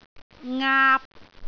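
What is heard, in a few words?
"aap3", Cantonese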